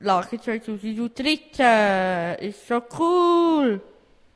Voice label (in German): Jingle; CoolTour 2013